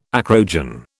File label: "acrogen", British